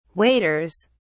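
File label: "waders", British English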